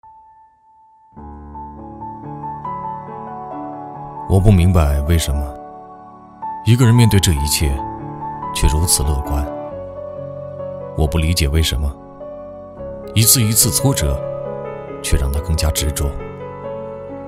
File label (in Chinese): 男 自述 深沉 凝重, 国语男声配音; 央视老师; putonghua